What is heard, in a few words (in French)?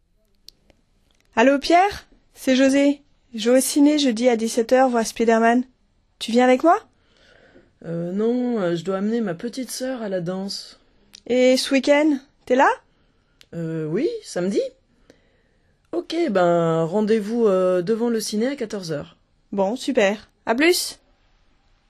conversation telephone; sortie cine